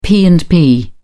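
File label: "p and p", British English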